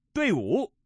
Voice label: pair 5, male